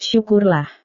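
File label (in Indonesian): "syukurlah", Indonesian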